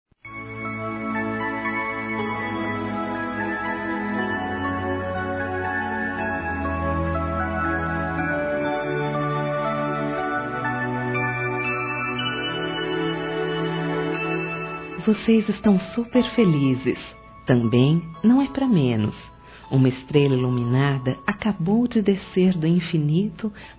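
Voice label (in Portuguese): PARABÉNS PELO NASCIMENTO, feminino, Telemensagens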